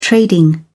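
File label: "trading", British English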